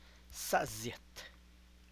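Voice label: distant blood relative, San Lucas Quiaviní Zapotec; e-poss only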